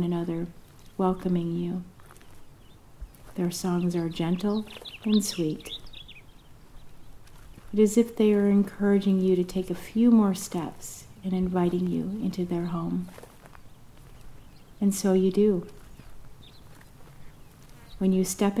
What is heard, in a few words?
Guided relaxation exercise forest